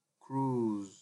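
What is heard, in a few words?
"cruise", Nigerian Pidgin, male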